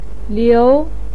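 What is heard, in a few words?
"liu", Mandarin pinyin, second tone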